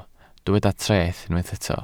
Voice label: "treth", Welsh